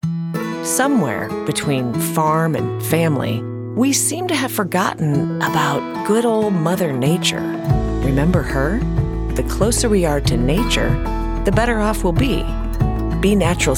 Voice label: Commercial